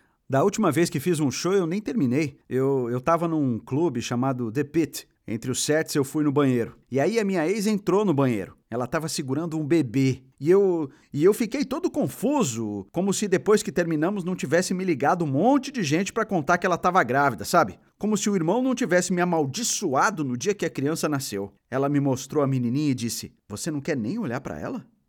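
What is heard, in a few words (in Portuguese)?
Português - Brasileiro, Interpretação natural, Voz Jovem, Masculino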